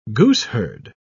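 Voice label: "gooseherd", British English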